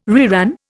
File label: "reran", Indian